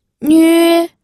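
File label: "nüe", first tone, pinyin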